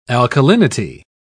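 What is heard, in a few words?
"alkalinity", British English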